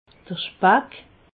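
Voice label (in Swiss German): "spack", Alsatian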